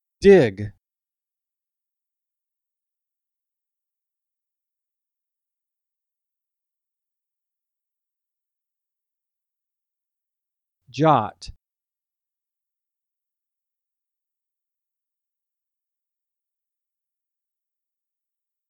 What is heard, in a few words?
Short Vowel; All We Can Read